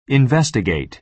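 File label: "investigate", American English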